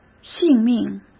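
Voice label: "性命", Mandarin Chinese; chinese flashcards; hsk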